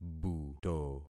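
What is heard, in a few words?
"buto", concatenated syllables; Affixing experiment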